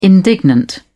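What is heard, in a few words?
"indignant", British English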